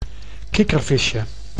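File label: "kikkervisje", Dutch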